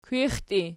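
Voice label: "kwixtii" nominative, Archi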